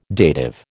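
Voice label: "dative", American English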